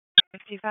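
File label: Raleigh Police Southwest District Dispatch; wake; p25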